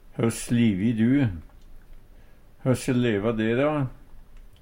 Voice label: hoss leva de, Numedalsmål; Høsse leva de, då?

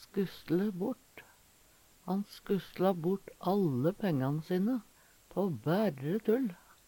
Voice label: skusle bort, Numedalsmål; Uttrykk; Han skusjla bort alle pengan sine på bærre tull.; Veggli

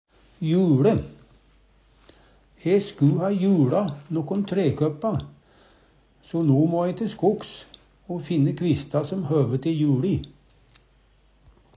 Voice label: juLe, Numedalsmål

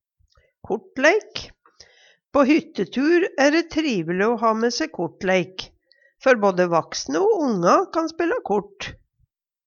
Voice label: kortleik, Numedalsmål

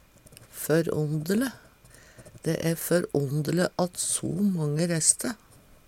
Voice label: "førondele", Numedalsmål; Dæ æ førondele at so mange resste agåle.

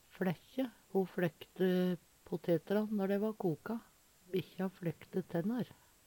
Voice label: "flaekkje", Numedalsmål; skrelle når poteten er rå, flekke når`n er koka (dra av skinnet)

